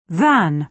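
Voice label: "than", British English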